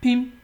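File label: "pim", Nigerian Pidgin, male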